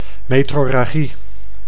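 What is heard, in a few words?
"metrorragie", Dutch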